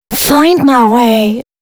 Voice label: female spoken hooks, dance ultra voice

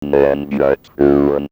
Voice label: Electro voice

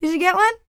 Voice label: FEM. SPOKEN; Spectrasonics Vocal Planet

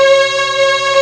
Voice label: big voice; Amiga Soundtracker Sample Packs

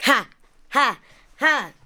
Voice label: ADLIBS 90; Ueberschall; Jam Box

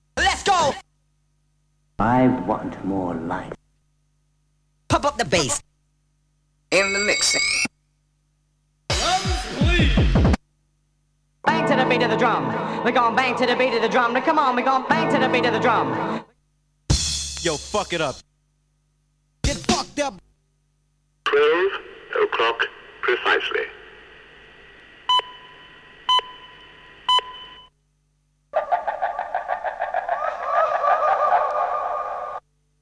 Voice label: Vocal Drops; Kniteforce; 1000 Samples Volume 1

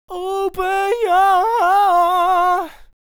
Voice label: "Open Your Heart", vocal phrases; Ultimate Vocals

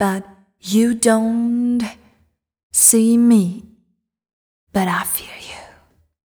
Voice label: fem spo, Vocals

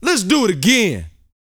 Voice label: BOAST F; Vocal Planet; R&B Dance

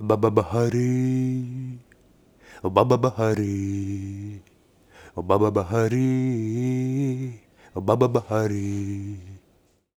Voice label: MAN VOICE, Arabian Traditions

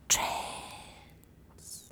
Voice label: "Trance", vocal phrases; Ultimate Vocals

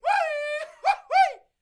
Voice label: MEXICO VOICE, MEXICAN; ILIO; Vocal Planet VOL-5

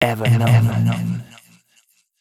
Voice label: male, spoken hooks

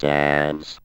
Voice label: ROBO VOX; Masterbits - Tekkno Trax

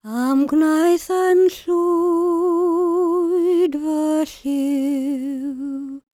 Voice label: WELSH F, World Voices

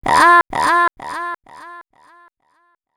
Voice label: female c#133, adlips

